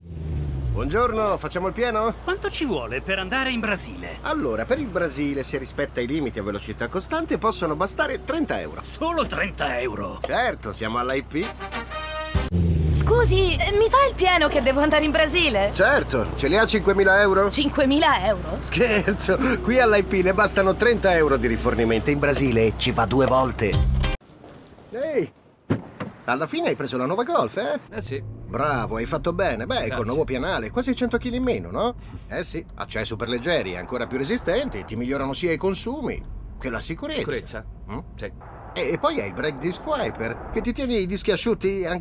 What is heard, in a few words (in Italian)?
radio spot